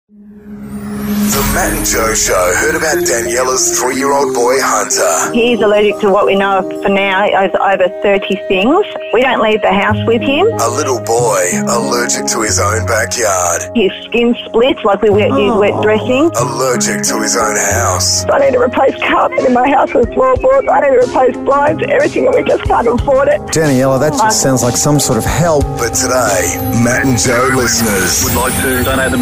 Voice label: daily promo